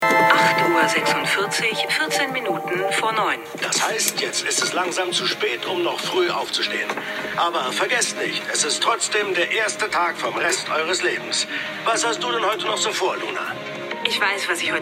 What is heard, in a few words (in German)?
Radiomoderatorin; Frau Jordan Stellt Gleich S2